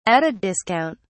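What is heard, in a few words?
"at a discount", British English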